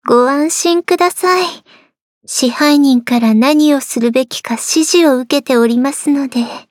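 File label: start, Japanese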